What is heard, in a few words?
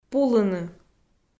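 "пулыны", Komi, female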